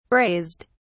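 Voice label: "braised", British English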